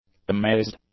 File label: "amazed", English; adjectives